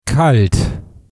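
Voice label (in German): "kalt", German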